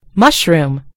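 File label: "mushroom", British English; gcompris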